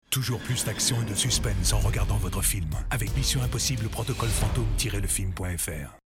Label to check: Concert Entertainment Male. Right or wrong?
Wrong